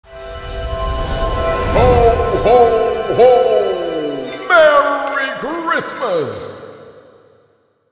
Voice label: Merry christmas santa claus voices

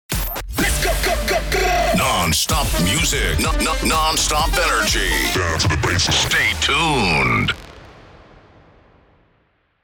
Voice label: Male radio voice dj sweeper non stop music; broadcasting

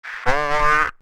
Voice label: CB radio male voice says

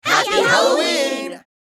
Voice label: Mixed crowd happy halloween

